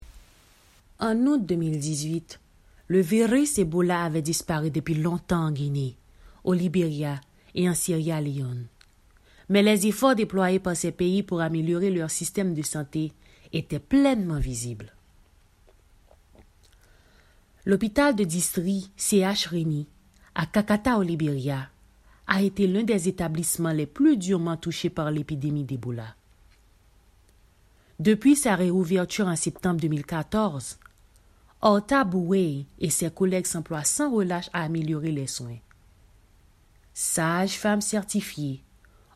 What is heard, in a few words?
french commercial